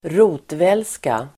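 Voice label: "rotvälska", Swedish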